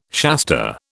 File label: "Shasta", British